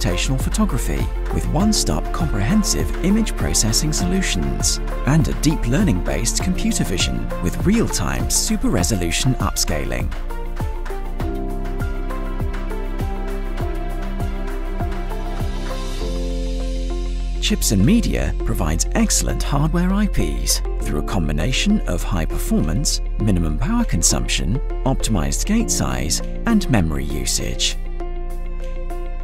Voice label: Corporate video young UK male voice over; ChipsMedia Introduction